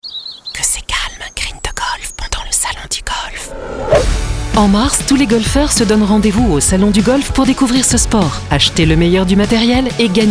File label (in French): salon souriant; SalonDuGolf